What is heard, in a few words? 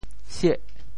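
"sieh8", Teochew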